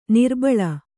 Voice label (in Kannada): "ನರಬಲಿ", Kannada